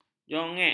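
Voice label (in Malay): "jongek", Malay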